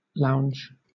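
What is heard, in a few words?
"lounge", British English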